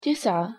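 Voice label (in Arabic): "تسعة", Arabic pronunciation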